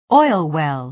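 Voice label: "oil well", American English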